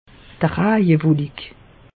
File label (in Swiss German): "rajewolik", alsace; meteo